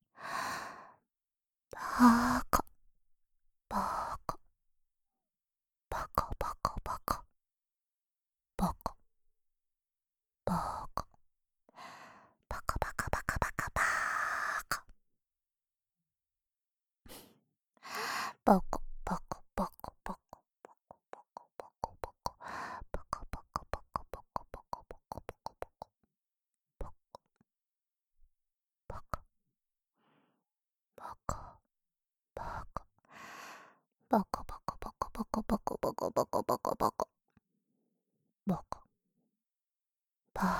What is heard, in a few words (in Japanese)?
"ばーかっ", 右耳